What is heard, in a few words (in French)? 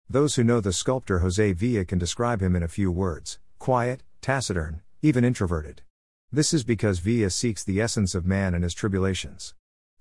anglais